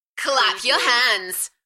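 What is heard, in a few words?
UK female, Enthusiastic, voice drop; Clap your hands; beanstalk audio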